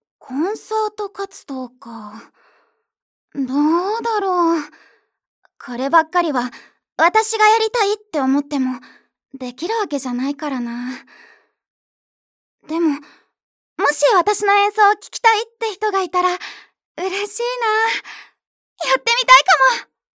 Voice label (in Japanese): 女子高生